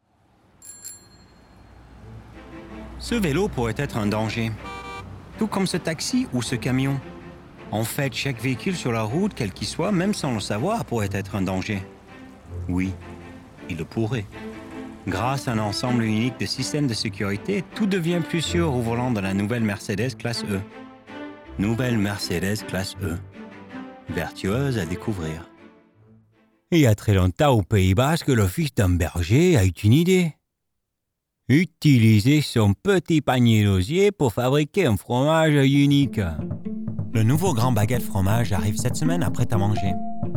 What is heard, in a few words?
French